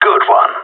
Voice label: good shooting, radio; GSG9

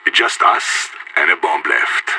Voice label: no enemies left bomb, radio; IDF

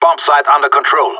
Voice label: bombsite clear, radio; GSG9

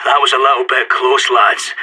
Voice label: close defuse, radio; SAS

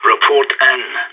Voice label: request report, radio; SAS

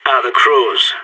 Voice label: crawls, radio; SAS

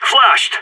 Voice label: flashed, radio; SAS

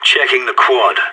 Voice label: checking quad, radio; SAS

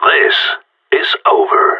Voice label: last man standing, radio; GSG9

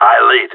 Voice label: follow me, radio; GSG9

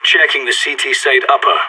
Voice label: checking ct side upper, radio; SAS

